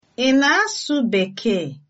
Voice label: do you speak english, igbo

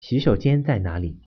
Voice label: "toilet", Mandarin

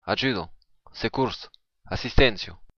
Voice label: help, occitan

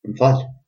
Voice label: sorry, albanian gheg

